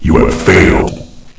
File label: combo fail, announcer; hl1mp; XDM